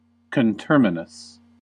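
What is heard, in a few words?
"coterminous", American English; pronunciations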